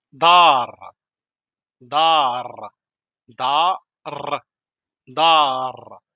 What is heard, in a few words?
house in moroccan arabic vocabulary darija